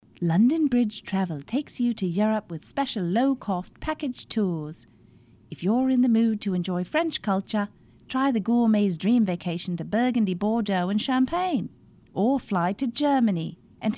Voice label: Brit Female; Character Voices; British Lady (2010)